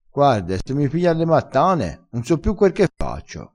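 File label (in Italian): "mattane", dialettale crespinese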